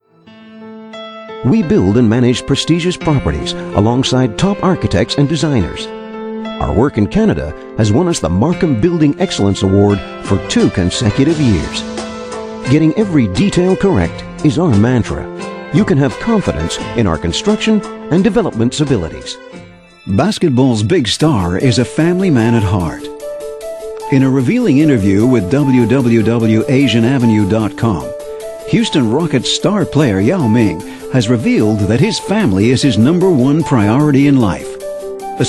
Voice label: North American